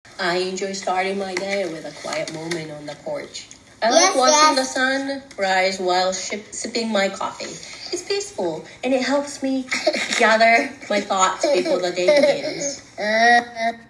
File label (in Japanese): ハッキリボイス